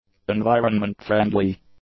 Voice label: "environment-friendly", English; adjectives